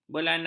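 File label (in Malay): "belanak", Malay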